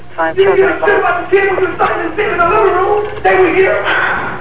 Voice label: 911 Call Part2; SimpsonMystery